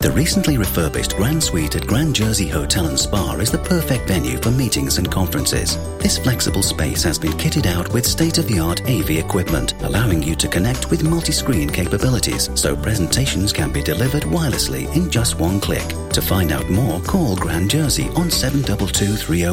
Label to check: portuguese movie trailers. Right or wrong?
Wrong